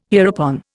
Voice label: "hereupon", Indian